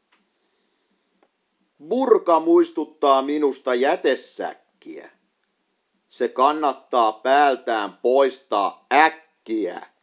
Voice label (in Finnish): burka, poetry